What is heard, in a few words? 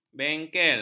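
"bengkel", Malay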